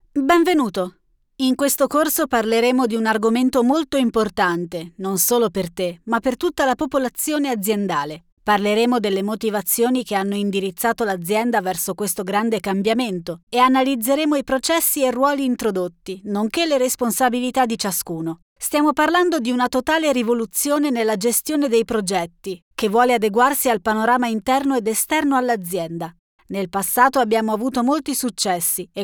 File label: e-learning